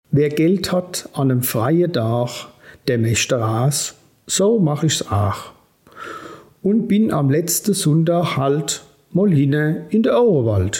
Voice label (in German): Mundart Gedicht Notbremse